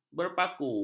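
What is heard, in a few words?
"berpaku", Malay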